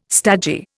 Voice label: "stagey", Indian

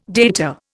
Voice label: "data", Indian

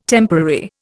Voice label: "temporary", Indian

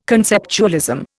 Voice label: "conceptualism", Indian

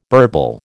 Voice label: "burble", American

